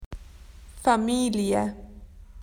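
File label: "family", Australian English; PictureDictionary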